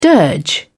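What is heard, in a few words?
"dirge", British English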